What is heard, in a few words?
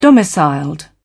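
"domiciled", British English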